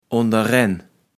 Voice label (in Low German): "onderenn", kaevels platt